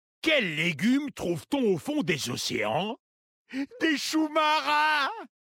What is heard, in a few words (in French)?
Homme Blague; Legume; Kultirassien